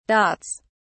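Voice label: "dots", British English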